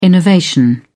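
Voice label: "innovation", British English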